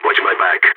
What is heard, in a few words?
cover me, balkan; vo